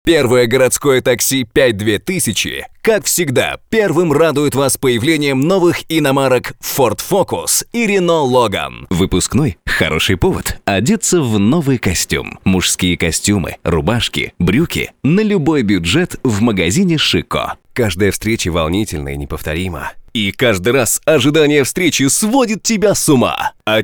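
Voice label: Russian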